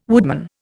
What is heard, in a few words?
"woodman", Indian